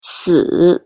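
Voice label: pronunciation "死", Chinese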